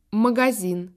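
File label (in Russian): "magasin", Russian; phonetique et graphie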